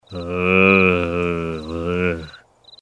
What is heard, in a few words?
happy ah; Simpsons; Homer